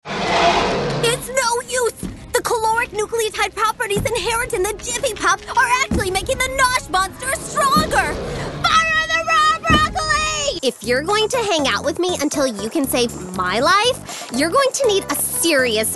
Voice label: animation

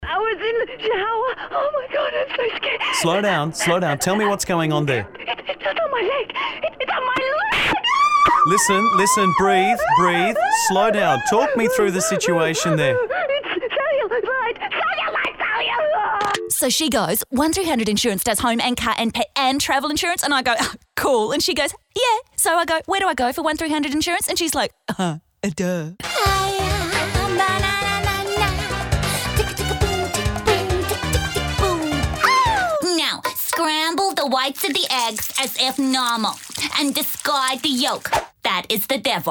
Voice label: character

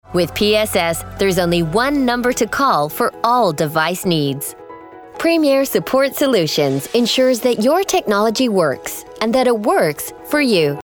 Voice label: US accent

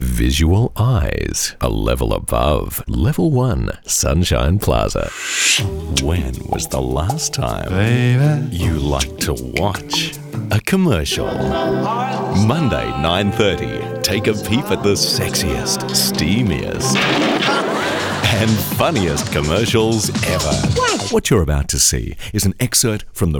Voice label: Soft Sell

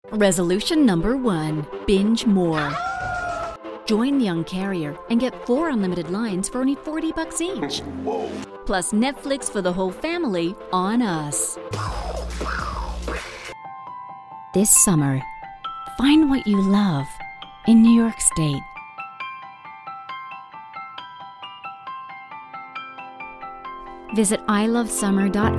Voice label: Character

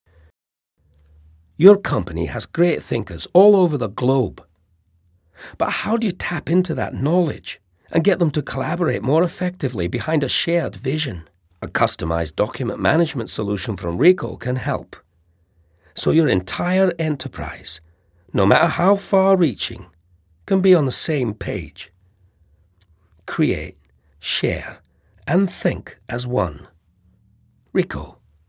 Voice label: scottish

